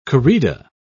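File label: "corrida", British English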